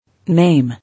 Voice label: "Name", English